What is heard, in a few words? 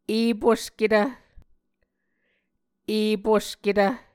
"eposketv", Muscogee